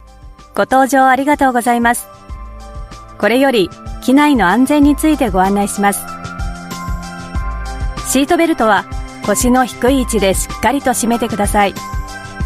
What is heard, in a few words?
Japanese In Flight Instruction